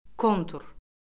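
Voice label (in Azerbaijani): "kontur", Azerbaijani; Orfoqrafiya Lüğəti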